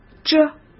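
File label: "着", Mandarin Chinese; hsk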